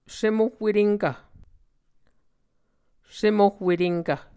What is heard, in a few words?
"semohwetenkv", Muscogee